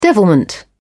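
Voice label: "devilment", British English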